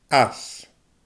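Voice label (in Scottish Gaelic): "aas", Scottish Gaelic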